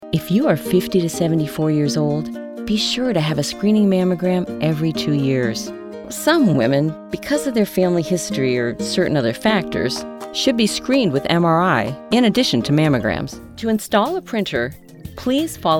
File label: Narration